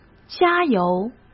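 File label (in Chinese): "加油", Mandarin Chinese; hsk; chinese-flashcards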